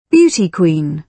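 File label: "beauty queen", British English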